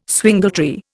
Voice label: "swingletree", Indian

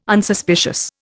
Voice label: "Unsuspicious", Indian

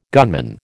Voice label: "gunman", American

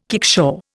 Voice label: "kickshaw", Indian

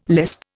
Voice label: "lisp", Indian

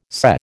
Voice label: "SCE", American

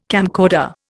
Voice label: "camcorder", Indian

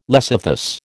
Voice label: "lecythus", American

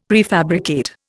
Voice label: "prefabricate", Indian; Dictionary

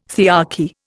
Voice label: "thearchy", Indian

